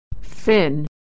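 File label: "fin", American English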